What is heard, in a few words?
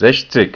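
"sechzig", German; ZAEHLEN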